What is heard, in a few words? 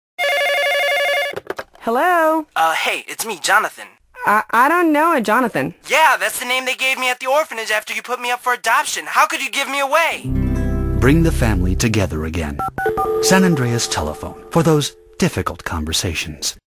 Radio Commercials; Grand Theft Auto San Andreas